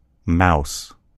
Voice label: "mouse", en US; gcompris